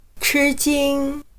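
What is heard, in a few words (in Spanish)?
"吃惊", chino mandarin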